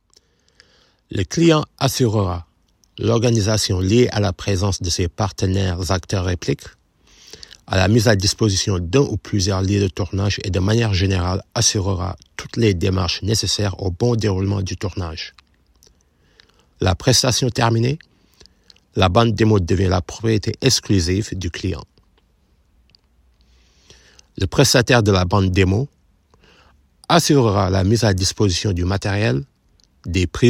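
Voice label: French, general; GoLocalise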